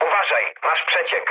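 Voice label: damaged water, mood med, Polish speech; War Thunder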